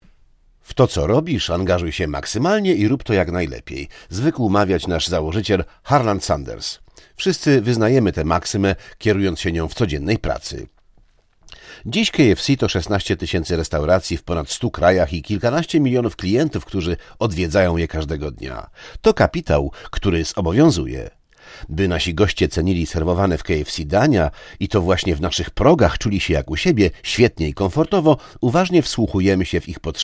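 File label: voice male doku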